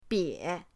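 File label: "bie", Mandarin pinyin, third tone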